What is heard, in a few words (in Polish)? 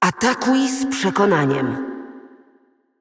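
assist, Polish; Karma; bloom minigame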